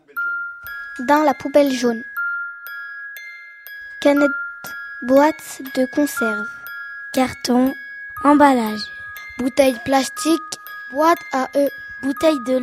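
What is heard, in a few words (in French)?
pub ecocitoyenne les déchets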